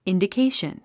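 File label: "indication", English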